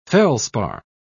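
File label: "felspar", British English, dictionary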